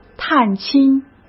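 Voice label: "探亲", Mandarin Chinese; hsk; chinese-flashcards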